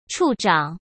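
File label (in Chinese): "处长", Mandarin; chinese flashcards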